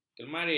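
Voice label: "kelmarin", Malay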